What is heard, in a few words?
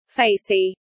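"facey", British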